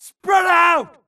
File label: grunt grenade response; imc; Titanfall 2